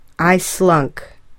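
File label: "I slunk", English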